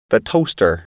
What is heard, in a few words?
"toaster", English